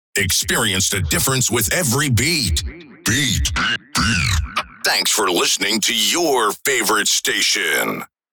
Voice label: Vocals radio voice sweeper experience the difference